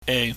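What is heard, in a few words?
"ei", Cantonese, low level tone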